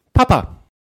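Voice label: "Papa", German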